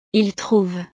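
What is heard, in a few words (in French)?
"ils trouvent", French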